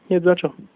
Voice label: you're welcome, slovak